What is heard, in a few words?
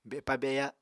"bepa-beya", Apatani